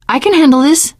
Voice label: take submachine gun, teen girl, survivor voice; source engine; left 4 dead 2